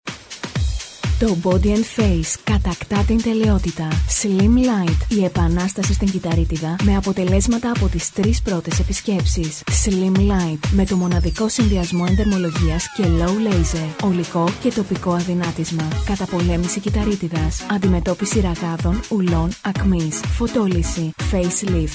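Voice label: Greek, female, commercial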